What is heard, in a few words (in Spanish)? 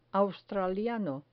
australiano